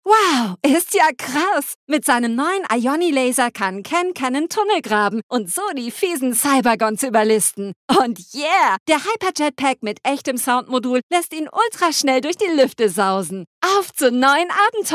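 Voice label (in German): Werbung Kindlich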